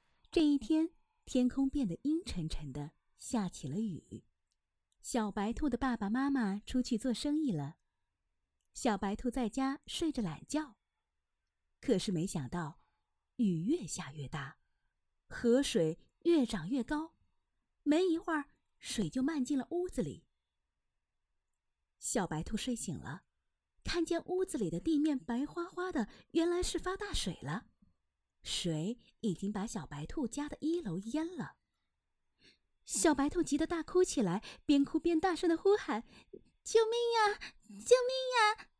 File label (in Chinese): 儿童故事配音